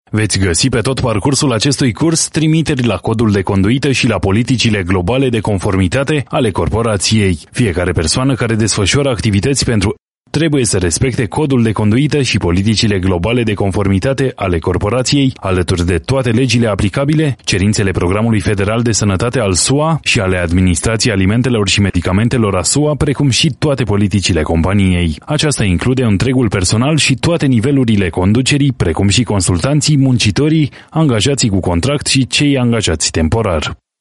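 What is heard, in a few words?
Romanian, male, narrative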